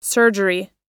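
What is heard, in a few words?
"surgery", English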